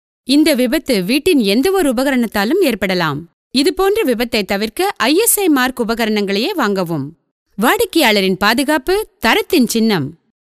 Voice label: Tamil female